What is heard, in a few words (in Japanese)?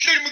left Face Turn, jp; marchingOrders